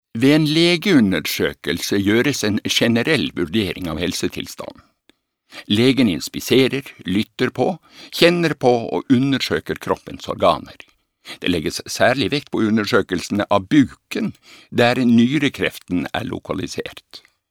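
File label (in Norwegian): no dia forfinet vestlandsk, Norwegian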